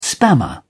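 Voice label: "spammer", English, British English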